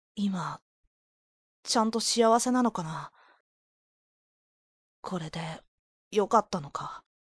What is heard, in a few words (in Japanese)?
元気な男の子; Fairy tale Lovers; 「今･･･ちゃんと幸せなのかな･･･これで、良かったのか･･･？